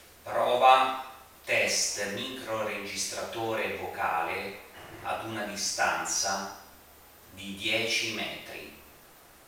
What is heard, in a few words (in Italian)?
Microregistratore vocale Test registrazione a 1 mt